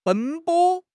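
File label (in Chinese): "奔波", Chinese; HSK6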